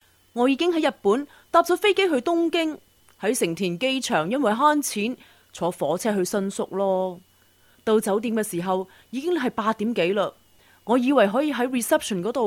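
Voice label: Chinese Cantonese, narration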